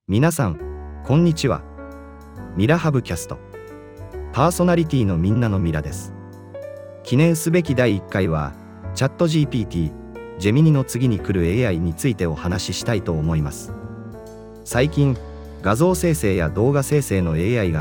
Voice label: podcast